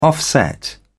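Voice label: "offset", British English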